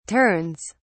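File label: "turns", British English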